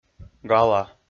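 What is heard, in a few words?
"гала", Komi-Zyrian, male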